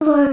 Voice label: Serious Word; Midna